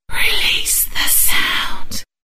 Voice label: ID whispered (female)